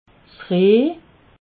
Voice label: "reh", alsace

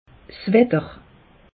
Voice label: "watter", alsace; meteo

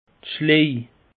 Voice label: "schlej", alsace; peche

